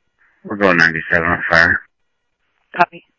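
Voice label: Mesa Simulcast Police Department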